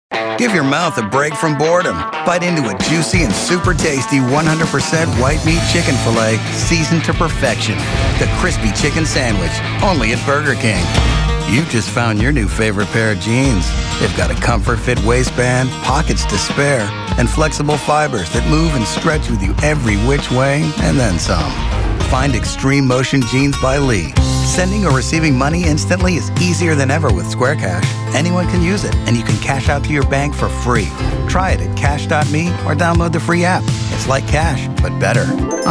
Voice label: Commercials

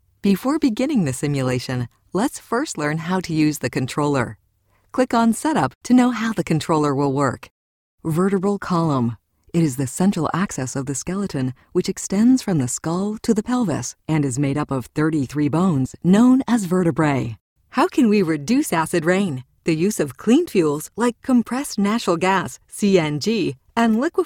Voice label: e-Learning

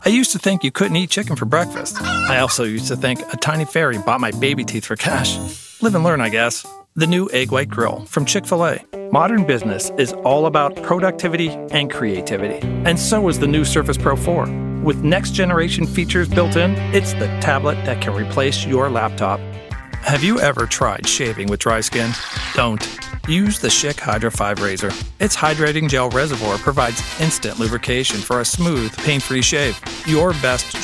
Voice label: Commercials

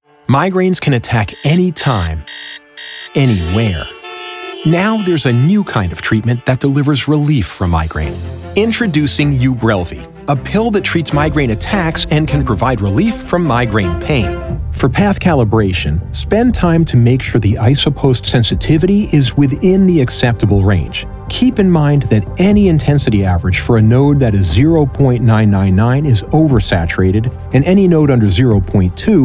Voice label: vo medical

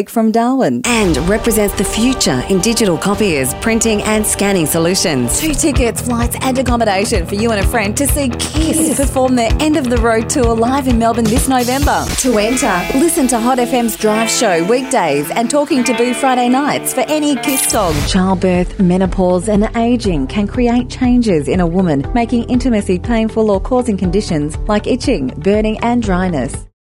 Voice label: Voice Over

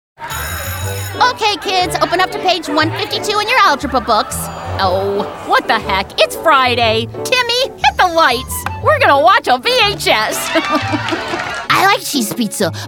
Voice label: Character & Animation

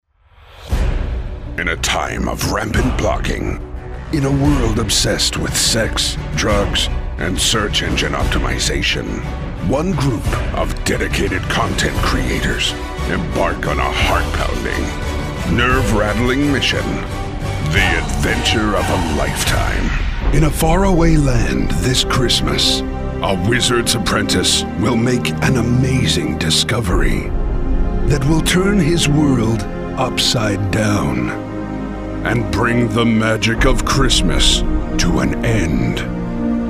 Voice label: Movie Trailer

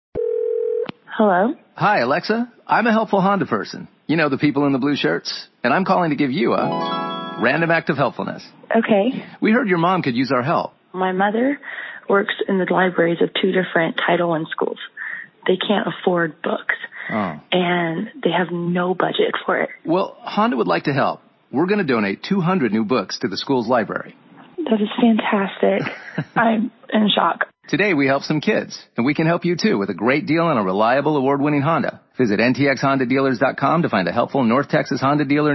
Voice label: Commercial Demo

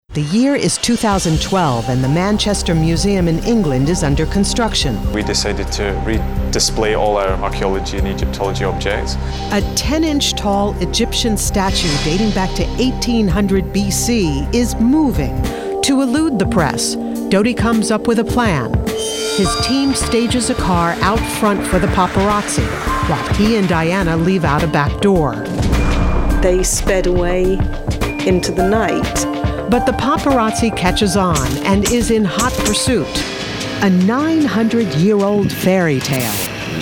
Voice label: TV & Film Documentary

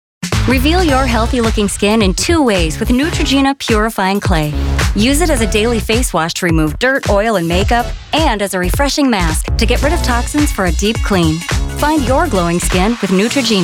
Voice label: Commercials

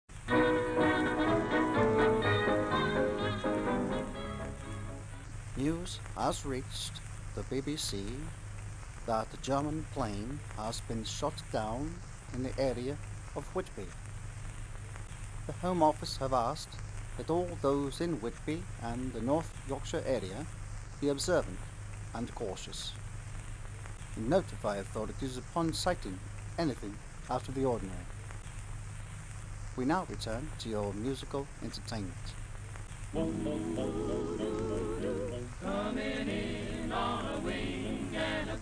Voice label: BBC Announcer 1940

